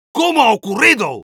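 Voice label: Heavy jeers, spanish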